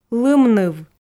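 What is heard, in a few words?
"лымныв", Komi, female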